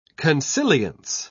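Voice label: "consilience", English, British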